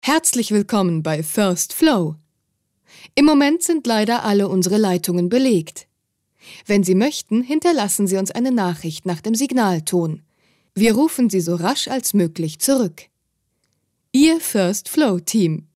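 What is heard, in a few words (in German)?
Swiss German, Freundlich; Fremdtake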